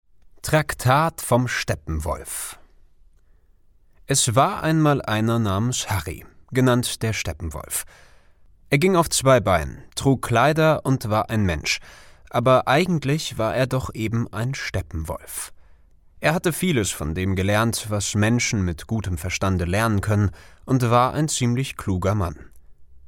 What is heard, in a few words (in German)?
Hörbuch; Der Steppenwolf; Stimmfarbe: Weich, Warm, Rauchig